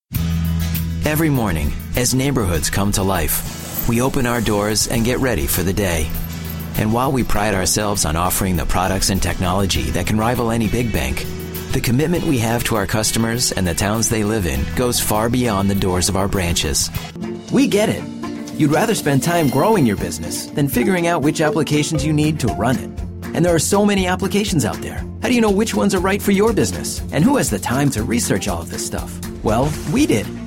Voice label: corporate